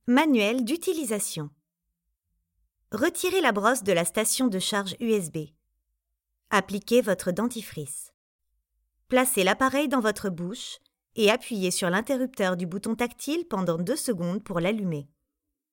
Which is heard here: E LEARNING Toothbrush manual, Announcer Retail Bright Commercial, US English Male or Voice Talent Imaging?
E LEARNING Toothbrush manual